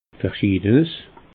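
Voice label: "verschiedenes", Alsatian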